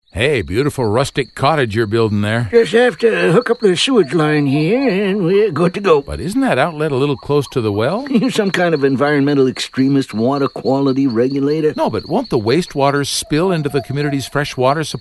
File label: PSA; Inversion; Clean Poop